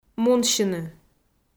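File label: "мунсьыны", Komi, female; kpv audio dict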